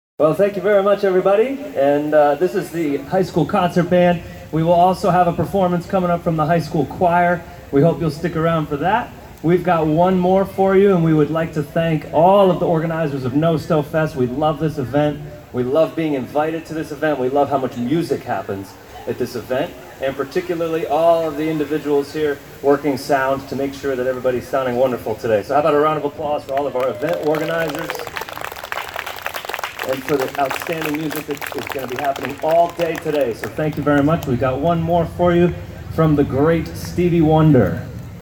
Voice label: Director Announcement; NSHS Band